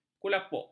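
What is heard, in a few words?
"kulapuk", Malay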